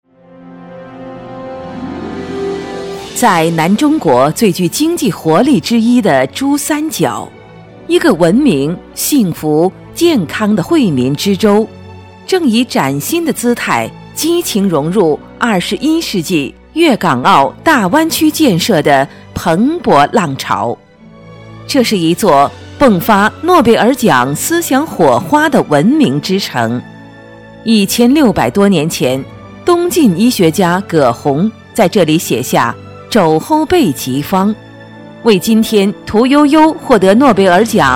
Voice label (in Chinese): 专题 政府 大气, 女国; 惠州